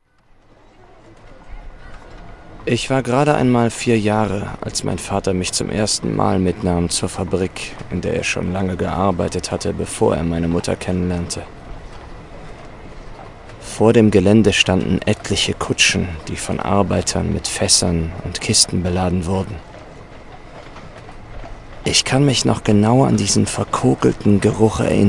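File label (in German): acting, Neutral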